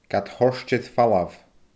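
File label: "gad thoirt air falbh", Scottish Gaelic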